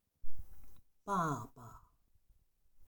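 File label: "baba", Chinese; 爸爸; お父さん; HSK Level One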